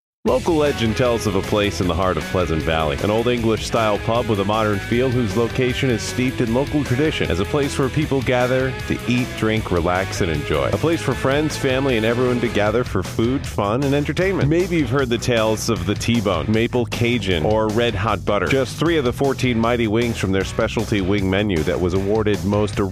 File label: Radio Commercial